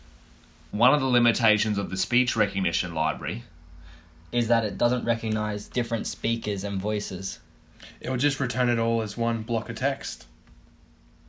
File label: multiple speakers